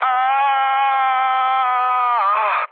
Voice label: damaged pilot, speech hun; War Thunder